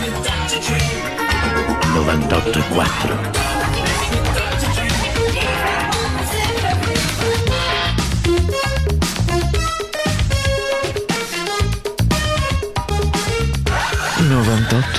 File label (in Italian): spot radio; speakeraggio; voice-over